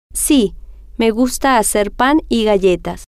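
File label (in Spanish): si Me Gusta Hacer Pan Galletas, spanish, female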